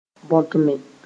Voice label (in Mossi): "bõtme", moore